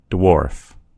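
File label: "dwarf", American English; gcompris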